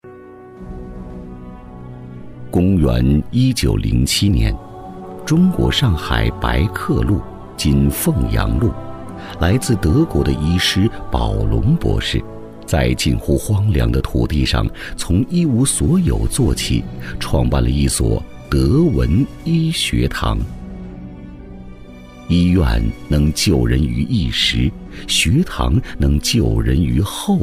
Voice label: 专题 医院 稳重, 男; 同济医院

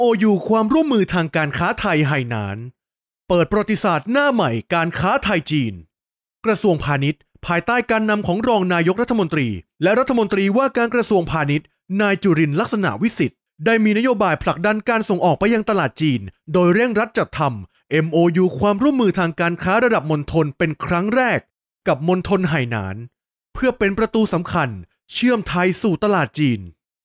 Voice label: 泰语男, 外语

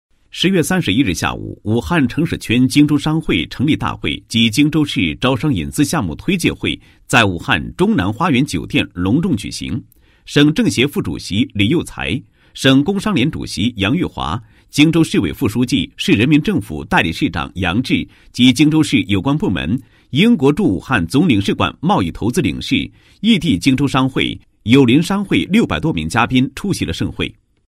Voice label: 男国 专题 新闻; 荆州商会成立大会 推介大会举行

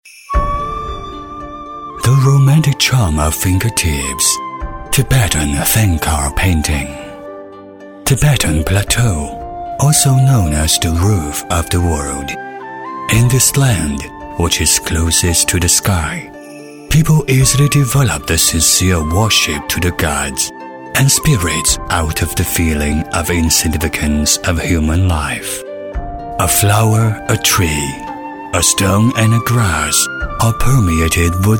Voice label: 男英 宣传片 旅游 景点介绍 沉稳; 英语

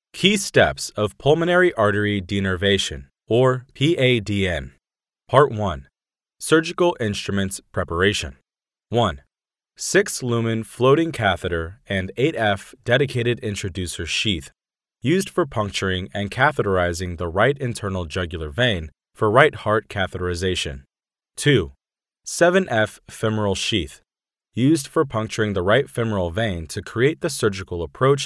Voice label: 外籍男英 外语 美式英语 干音

